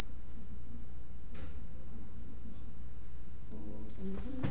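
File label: "pick", spoken word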